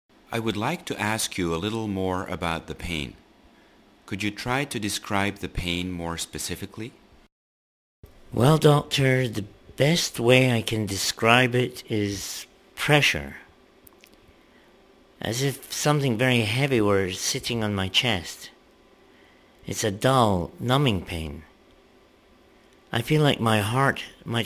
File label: Conversation